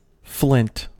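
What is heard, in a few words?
"flint", US English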